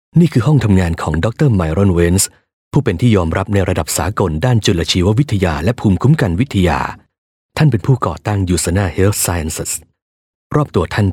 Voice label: Thai, Thai Male

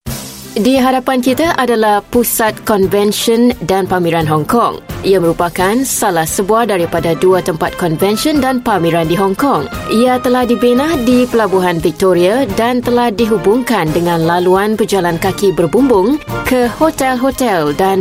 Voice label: Malay F; Female

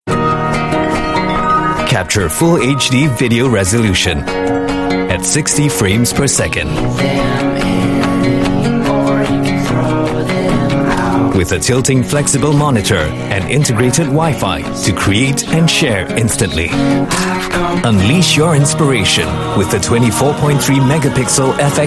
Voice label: Asian English, English Singaporean Male, TVC; Nikon D750

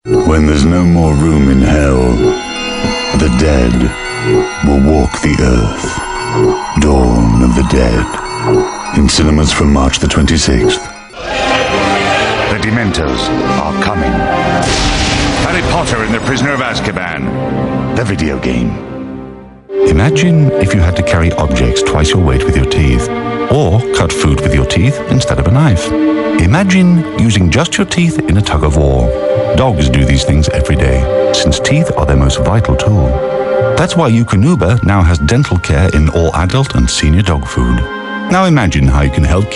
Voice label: UK English Commercial, English UK Male

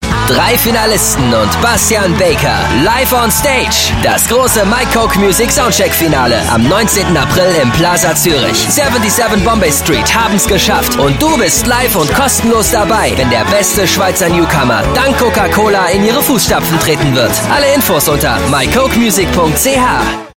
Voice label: German, German Male; CocaCola

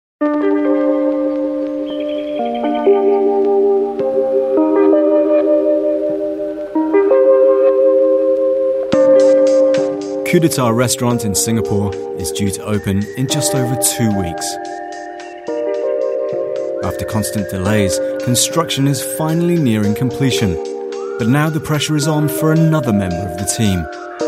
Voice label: UK English Documentary, English UK Male; KuDeTa Ceiling

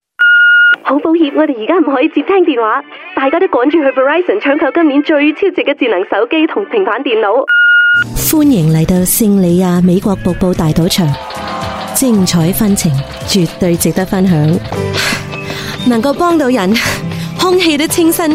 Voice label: Cantonese Female

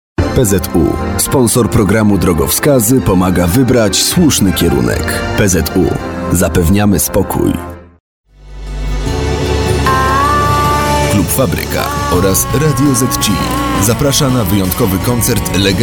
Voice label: Polish Male Commercial

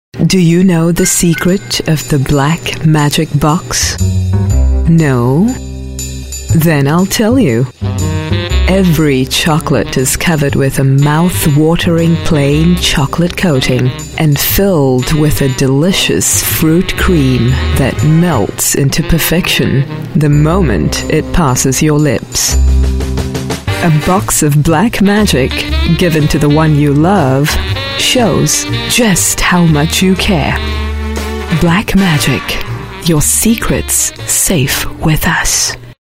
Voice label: Asian English Sexy Sell, Singaporean Female

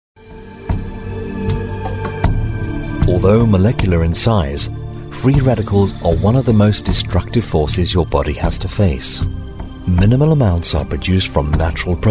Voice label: UK English, Male

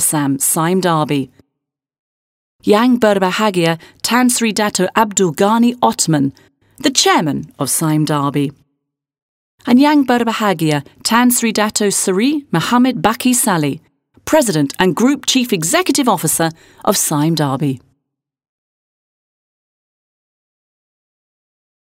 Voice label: UK English Host Announcement Malay & English; Female

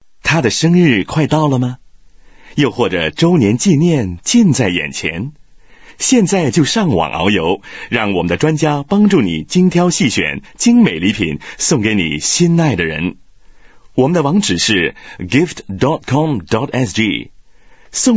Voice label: Mandarin, Mandarin China Male

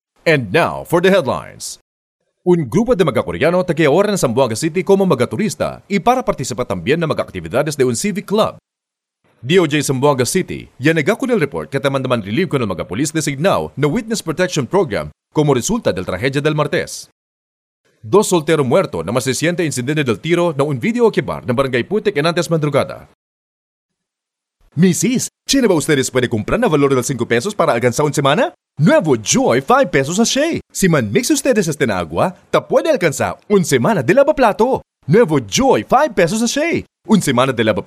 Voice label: Chavacano Male; Filipino

